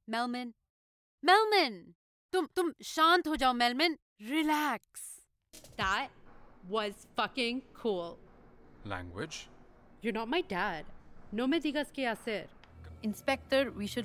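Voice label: character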